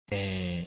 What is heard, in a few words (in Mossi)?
"bẽe", moore